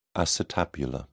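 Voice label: "acetabular", British English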